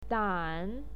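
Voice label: "dan", Mandarin Chinese, third tone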